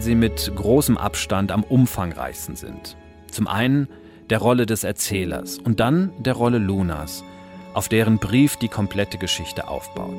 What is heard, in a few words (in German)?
Sprecher Sänger